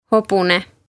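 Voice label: "hobune", Estonian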